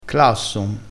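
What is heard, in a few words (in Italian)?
"classon", vocabolario dialettale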